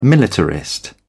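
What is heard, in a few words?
"militarist", British English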